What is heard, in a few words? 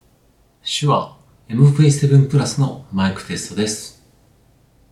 SHURE MV7 mic test